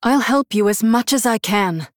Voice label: Female Human

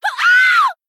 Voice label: Female Blood Elf Death

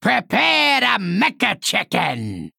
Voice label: Male Goblin Play

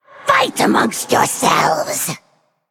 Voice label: Female Undead; Valeera; Mission5 Hero Power

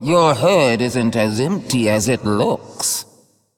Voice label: Female Faceless Play

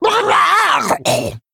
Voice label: Male Murloc Death

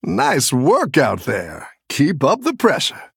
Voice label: Male Human; Combat Win

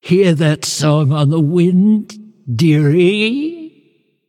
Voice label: Female Ancient Emote Start

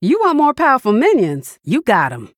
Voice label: Female Human; Bacon Shop Bob

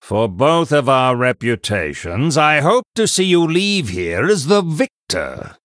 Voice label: Male Human Idle

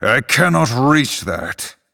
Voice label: Male Night Elf ERROR TARGET

